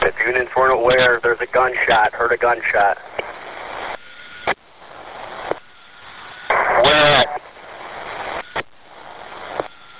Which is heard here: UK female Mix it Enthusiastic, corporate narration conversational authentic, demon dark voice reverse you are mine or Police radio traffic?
Police radio traffic